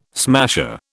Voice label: "smasher", British

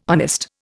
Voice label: "honest", Indian

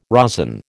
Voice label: "rosin", American

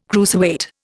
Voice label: "cruiserweight", Indian

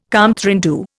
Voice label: "compte rendu", Indian

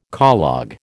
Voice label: "collogue", American

